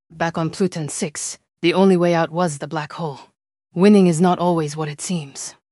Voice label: happy; fertig classic games